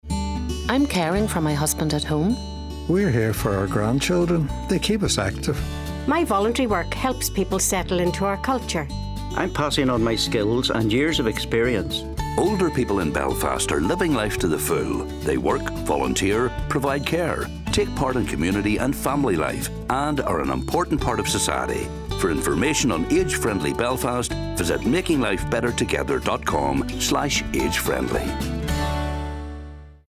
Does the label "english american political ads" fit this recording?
No